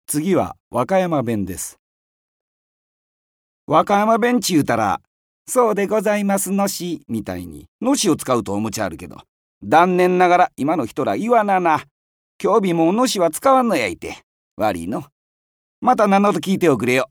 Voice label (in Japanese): 方言